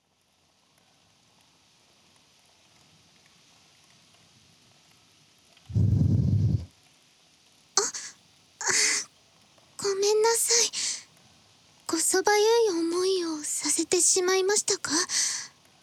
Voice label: 耳掃除（右耳）; 【洗髪・耳かき】あやかし郷愁譚 ～洗濯狐 お紺～【心と体のお洗濯】

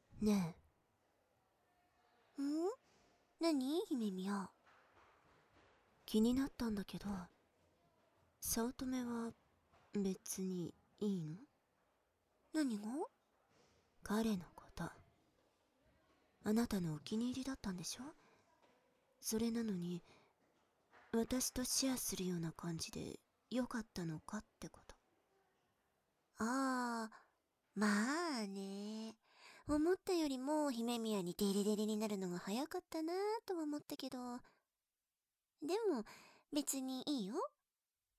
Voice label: ちょっとしたガールズトーク; 性的にからかってくる隣の席の早乙女さん、と姫宮さん; バイノーラル

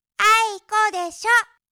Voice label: あいこで、しょ, 遊ぶ女の子

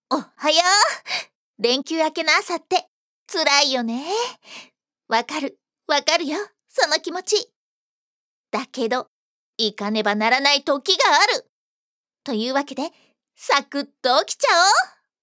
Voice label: ハイテンション, ハイテンションな女の子

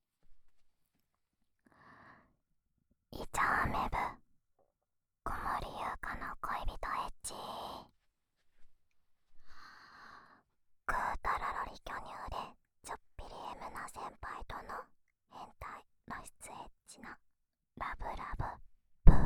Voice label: フリートーク

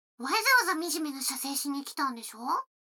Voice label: 女の子台詞, ASMR

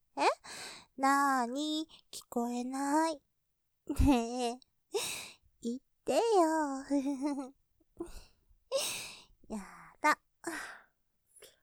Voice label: 言葉責め, ASMR; なぁーに?聞こえないｗｗ言ってよｗｗ; ループで楽しむ！シェフの気まぐれ抜き抜きコース; モノラル

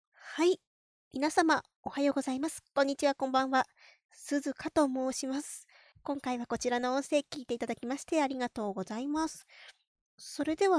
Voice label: フリートーク「自己紹介」; オナニー実演音声

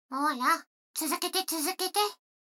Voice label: 女の子台詞, ASMR

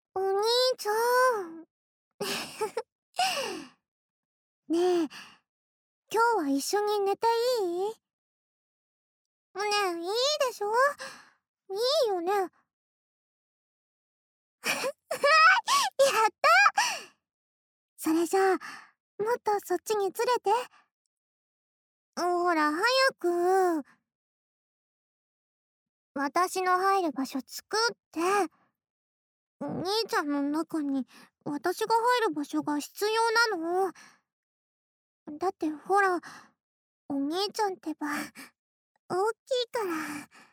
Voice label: 添い寝 左, ASMR

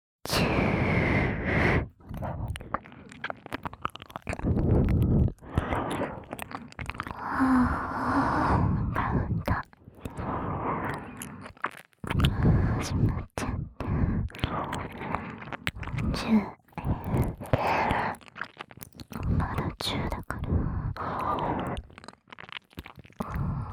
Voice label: 耳舐め手コキカウントダウン, ASMR

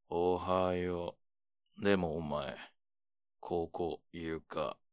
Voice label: mezamashi, ASMR

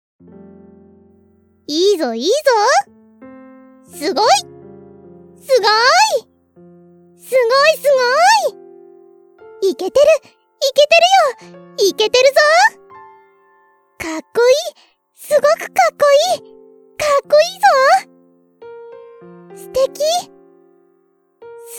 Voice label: 応援ボイス

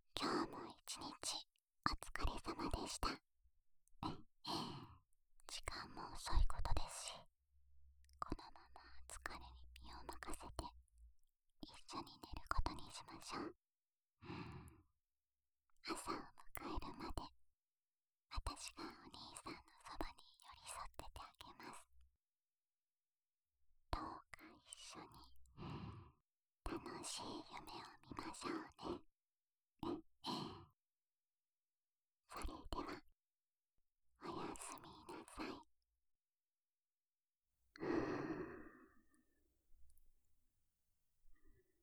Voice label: 安眠用添い寝ボイス（右耳）, ASMR

